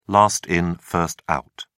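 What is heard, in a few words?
"last in first out", British English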